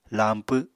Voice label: "lañpw", Apatani

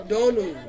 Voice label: "donu", Ansus; full

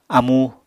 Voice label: "amú", Apatani

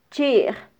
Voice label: "chij", mam

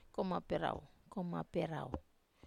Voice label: "komap rau", Wamesa

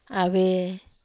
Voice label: "ave", Wamesa

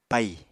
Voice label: "paì", apatani

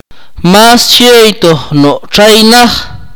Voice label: "he did not eat fish", Akateko

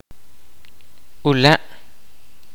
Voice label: kaqchikel; guest

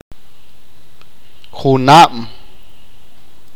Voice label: "next year", achi

